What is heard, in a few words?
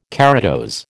"keratose", American